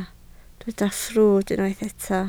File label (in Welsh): "ffrwd", Welsh